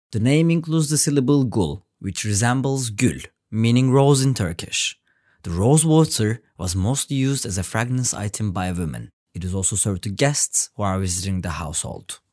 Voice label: english